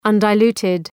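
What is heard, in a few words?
"undiluted", English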